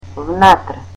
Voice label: "inside", Macedonian